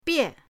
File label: "bie4", pinyin